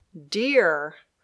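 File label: "deer", English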